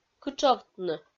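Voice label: "кытшовтны", Komi-Zyrian, female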